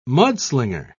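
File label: "mudslinger", British English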